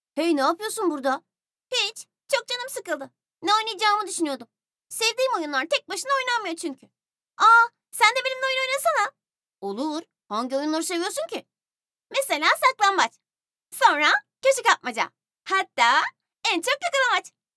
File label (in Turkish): cocuk sesi